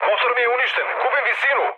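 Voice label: Serbian damaged engine mood low, speech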